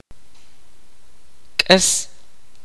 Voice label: "live", kaqchikel